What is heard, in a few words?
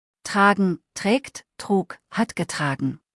"tragen", deutsch; verben kurzliste